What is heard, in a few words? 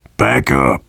backup quiet, survivor voice biker; Left 4 Dead 2